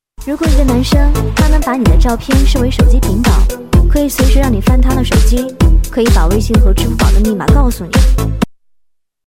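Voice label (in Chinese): B女 DJ,配音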